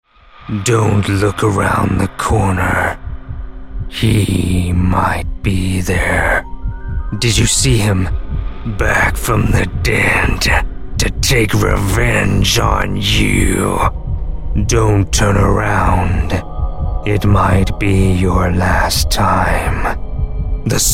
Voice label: Trailer